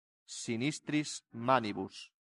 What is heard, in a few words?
"sinistris Manibus", Latin; santillana